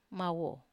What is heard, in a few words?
"mawo", Wamesa